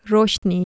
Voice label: "roshni", Urdu

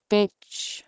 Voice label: "boec" Anglian

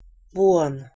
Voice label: "buan", Proto Germanic

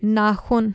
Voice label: "naxun", Urdu

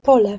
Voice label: "pole", Polish

